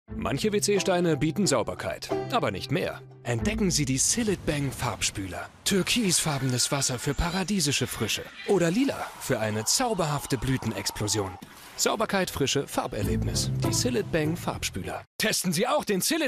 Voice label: tv werbung; cillit bang

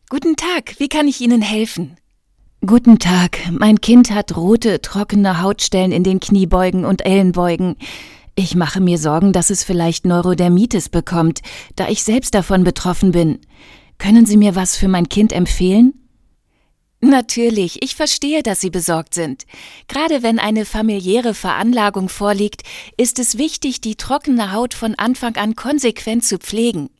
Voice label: e-learning kundendialog

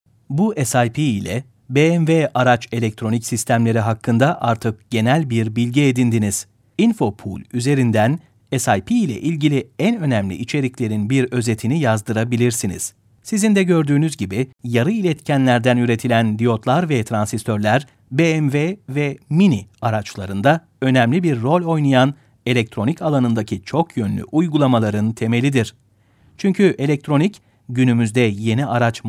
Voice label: e-learning tuerkisch; bmw

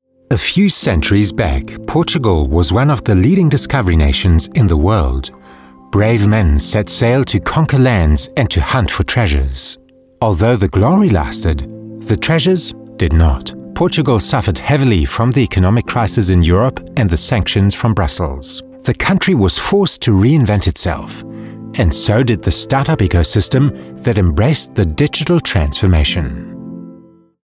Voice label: doku englisch

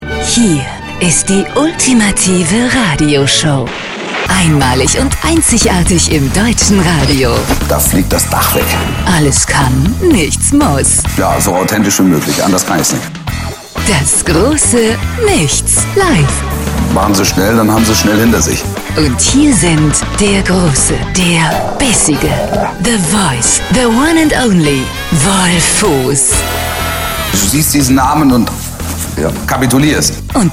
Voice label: show showstimme

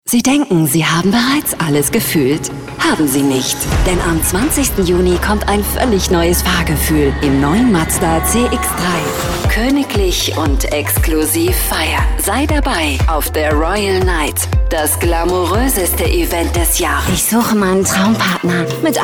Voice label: werbung funkspot compilation jung frisch lebendig laessig feminin dynam

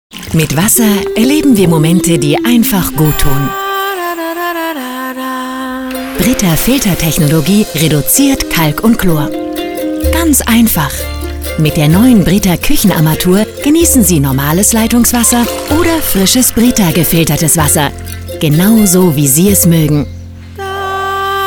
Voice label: image werblich; brita

